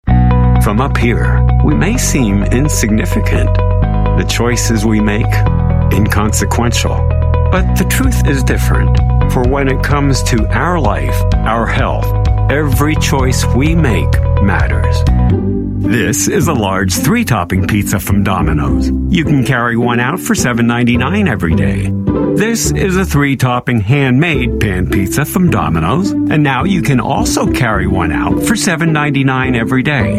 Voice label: US English Commercial